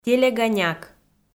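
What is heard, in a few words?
"телега няк", Komi, female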